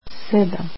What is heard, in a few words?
"seven" croatian